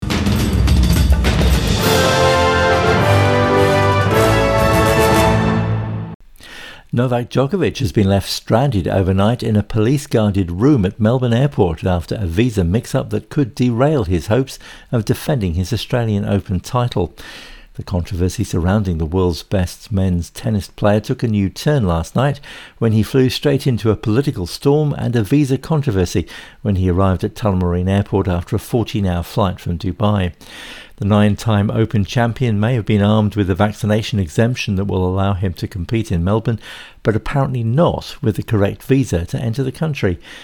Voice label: english; SBS News; Novak Djokovic detained at Melbourne Airport